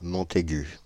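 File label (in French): "Montaigu", French, Paris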